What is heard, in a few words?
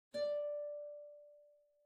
tired